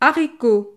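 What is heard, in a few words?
"haricot", French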